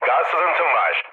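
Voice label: Turkish damaged water, mood low, speech; War Thunder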